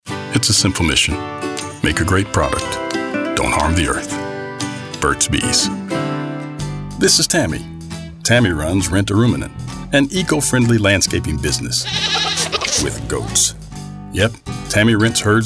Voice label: Commercial